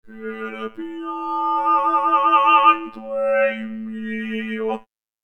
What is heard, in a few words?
robot; Mozart Lyric